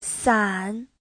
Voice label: "san3", ô (dù); (danh từ) ô (dù)

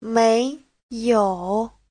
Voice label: "没有", Hán ngữ; (phó từ) không

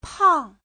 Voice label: "pang4", béo; (tính từ) béo

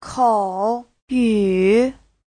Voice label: "口语", Hán ngữ; (danh từ) khẩu ngữ

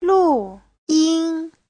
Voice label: "录音", Hán ngữ

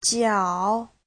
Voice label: "jiao3", bàn chân; (danh từ) bàn chân

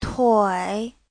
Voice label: "tui3", chân; (danh từ) chân

